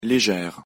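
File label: pronunciation fr "légère", French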